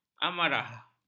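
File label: "amarah", Malay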